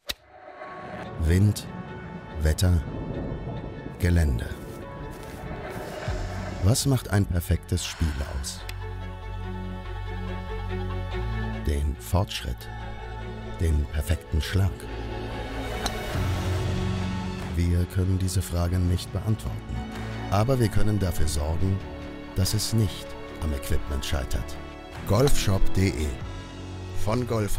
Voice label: spot voiceover; Golfshopde

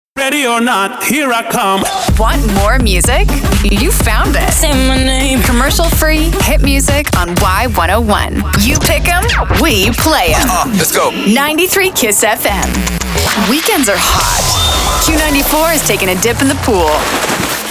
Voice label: Radio Imaging

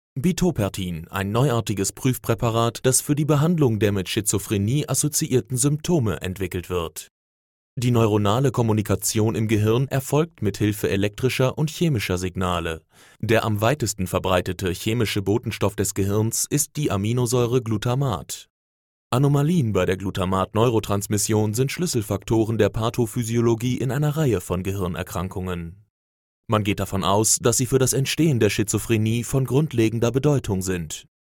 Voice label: elearning; bitopertin

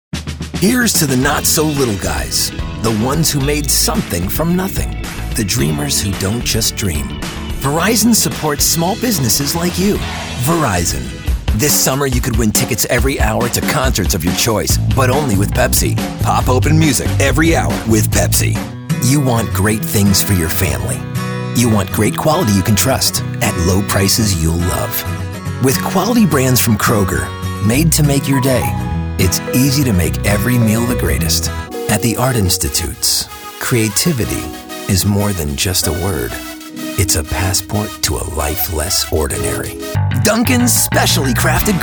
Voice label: commercial

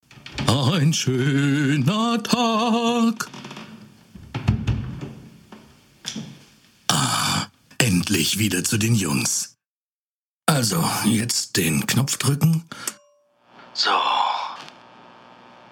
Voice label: Station Voice, Podcast, German; Stationvoice Sumscity Podcast 1

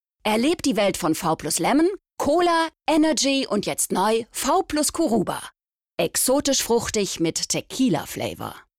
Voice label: Commercial; Curuba Drink

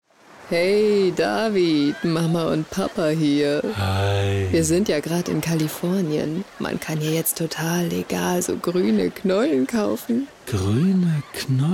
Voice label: Commercial; Radiozentrale; High Mum

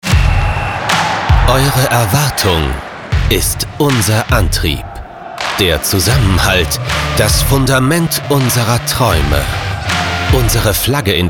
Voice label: Trailer; EM

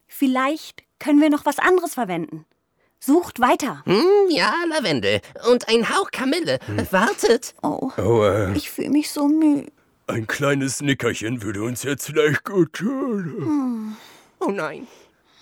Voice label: Animation Synchron, DEU

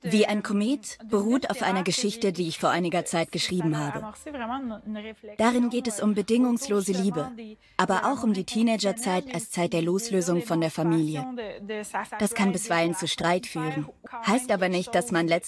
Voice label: Voice Over; Arte

